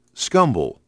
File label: "SCUMBLE", pronoun